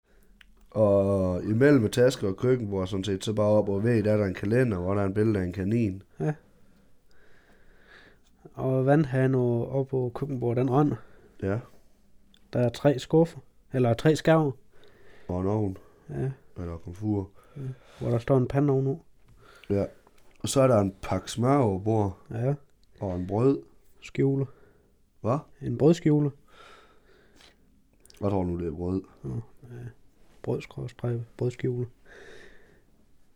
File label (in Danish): SKERN dialekt aar